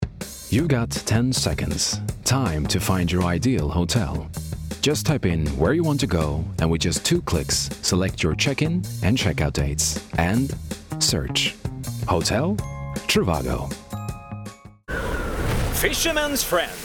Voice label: Commercial reel